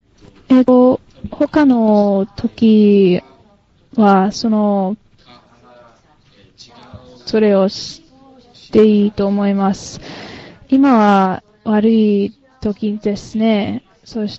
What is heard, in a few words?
Japanese Return Telephone Call; Return Telephone Call 3 Sample C Score 1